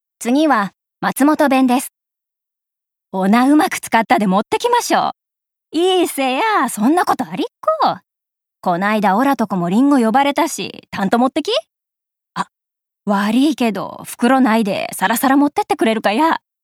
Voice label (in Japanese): 方言